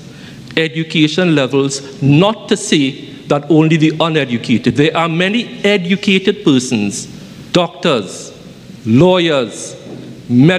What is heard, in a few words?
MALE HESITANCY